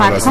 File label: English